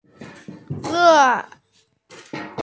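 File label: "le4", Mandarin Chinese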